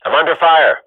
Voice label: radio bot under fire, swat; Counter Strike Model Pack; CS:GO